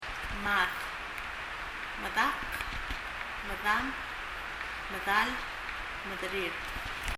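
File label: "mad" Palauan, eye; 眼; 発音; 身体の各部位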